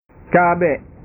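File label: "cabe", Indonesian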